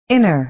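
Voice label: "inner", American English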